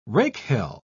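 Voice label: "rakehell", British English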